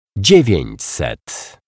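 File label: "dziewiecset", Polish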